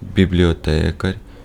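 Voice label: Ukrainian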